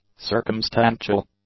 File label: "circumstantial", English; adjectives